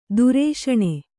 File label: "ದುರೇಷಣೆ", Kannada